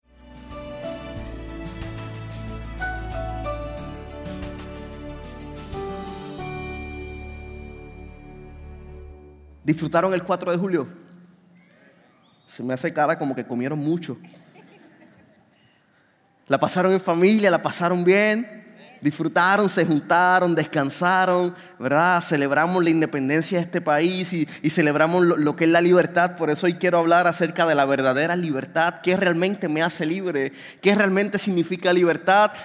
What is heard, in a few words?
Sermon Spanish